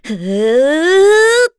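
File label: Vox Casting, Korean; FreyB; kingsraid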